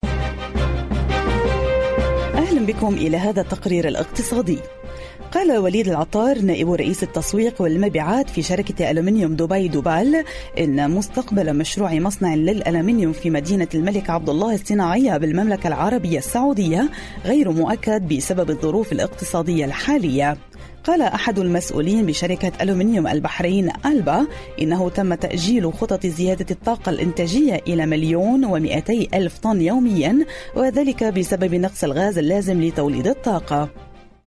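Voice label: Arabic Corporate; GoLocalise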